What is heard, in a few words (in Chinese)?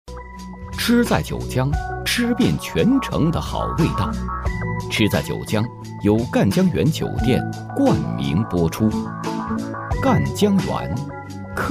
男 广告, 国语男声配音; 赣江源